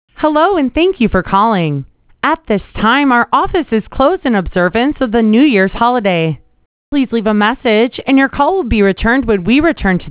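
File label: New Years Closed voicemail; Holiday Greetings; holiday tips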